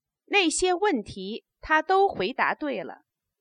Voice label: stative, Chinese

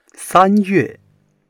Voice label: "san1 yue4", Chinese

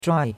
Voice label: "zhuai4", chinois; Cours-de-Chinois

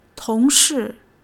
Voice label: "tong2 shi4", Chinese

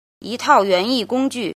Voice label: "jin", Chinese

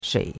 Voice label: "shei3", chinois; Cours de Chinois

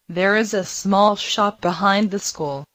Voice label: Mandarin Conversation

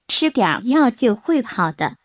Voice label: Mandarin Conversation

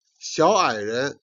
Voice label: "jian", Chinese

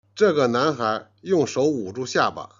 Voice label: "jian", Chinese

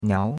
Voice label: "niao2", chinois; Cours de Chinois

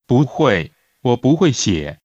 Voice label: Mandarin Conversation

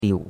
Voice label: "liu3", chinois; Cours de Chinois

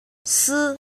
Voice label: "jin", Chinese

